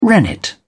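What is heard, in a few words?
"rennet", English, British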